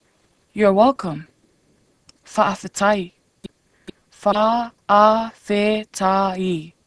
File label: Samoan Welcome